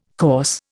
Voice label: "coarse", Indian